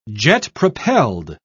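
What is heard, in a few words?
"jet-propelled", British English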